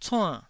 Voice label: "cuann4", taiwanese

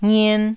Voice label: "ngien1", taiwanese syllables

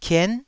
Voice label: "kien9", taiwanese syllables

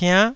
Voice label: "piann9", Taiwanese

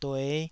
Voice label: "due5", taiwanese syllables

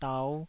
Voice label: "dau5", Taiwanese syllable

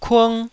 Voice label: "kung1", Taiwanese syllable

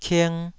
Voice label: "king2", taiwanese syllable

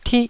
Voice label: "tih1", taiwanese Syllables

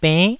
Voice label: "benn9", taiwanese

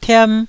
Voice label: "tiam1", taiwanese Syllables

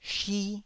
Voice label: "shi1", taiwanese Syllables

